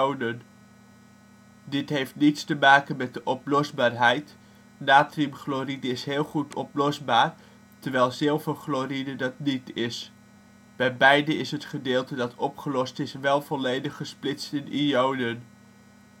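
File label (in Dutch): Elektrolyt article, Dutch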